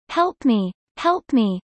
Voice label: fast; studying abroad